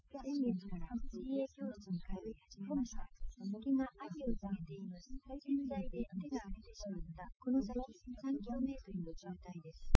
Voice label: female, live rec; SiSEC08; SiSEC underdetermined